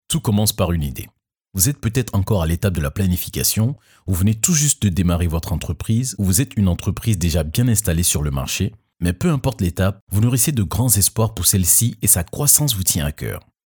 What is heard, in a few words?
video voice fr